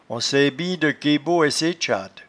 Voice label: "ansaitbindeque", patois jura